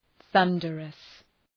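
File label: "thunderous", English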